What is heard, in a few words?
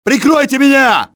cover me, russian soldier; XBOX